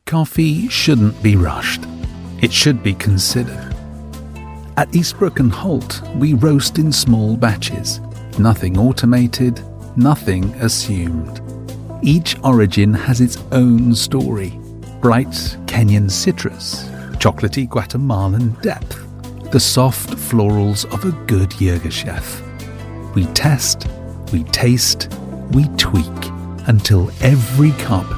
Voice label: commercials classy, Versatile Warm British Voice Over